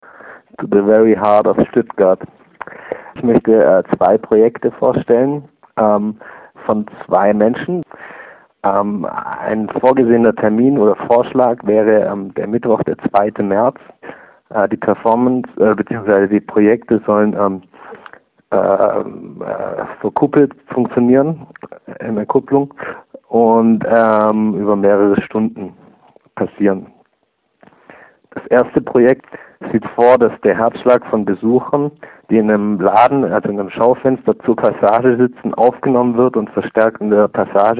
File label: Voicemail